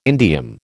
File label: "indium", American